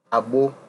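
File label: "agbo", Nigerian Pidgin, male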